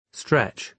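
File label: "stretch", British English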